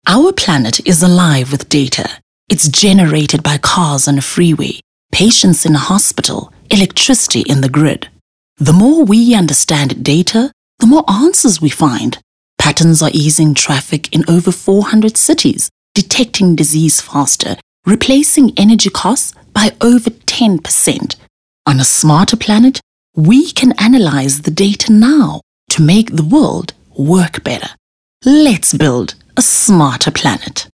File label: English Corporate